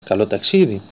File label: bon voyage, Greek